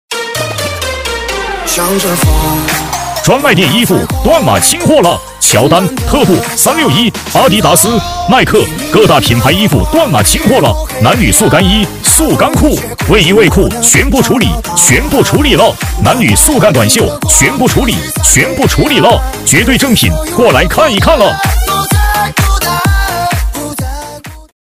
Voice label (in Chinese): 激情促销男 品牌衣服断码清货; 激情力度; 新老师